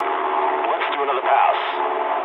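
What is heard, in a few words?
English bomb failure mood low; speech; War Thunder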